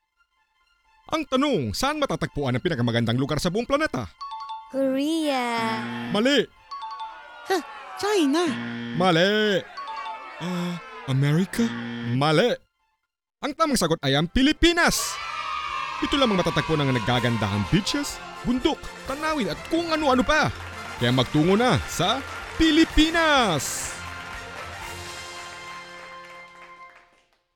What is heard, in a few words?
Game show ad